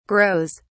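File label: "grows", British English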